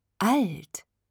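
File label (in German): "alt", German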